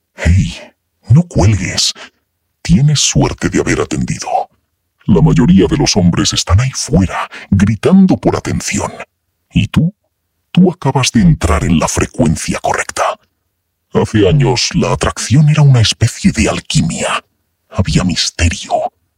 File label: call audio